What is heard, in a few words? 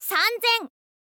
"sanzen", girl; voice; info girl